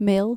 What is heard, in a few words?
"mijl", Dutch